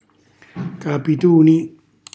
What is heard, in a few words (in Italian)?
"capituni", Calabrese; capitone, anguilla